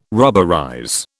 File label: "rubberize", British